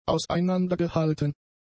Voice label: "auseinandergehalten", German; adjectives

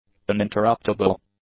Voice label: "uninterruptible", English; adjectives

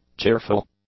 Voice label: "cheerful", English; adjectives

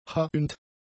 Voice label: "hauend", German; adjectives

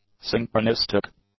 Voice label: "synchronistic", English; adjectives

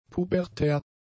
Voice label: "pubertär", German; adjectives

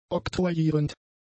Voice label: "oktroyierend", German; adjectives

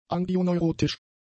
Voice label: "angioneurotisch", German; adjectives

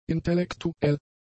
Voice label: "intellektuell", German; adjectives

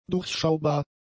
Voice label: "durchschaubar", german; adjectives

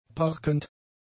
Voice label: "parkend", German; adjectives

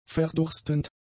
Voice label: "verdurstend", German; adjectives

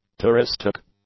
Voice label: "touristic", English; adjectives

